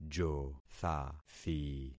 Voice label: "jothathi", concat syllables; Affixing experiment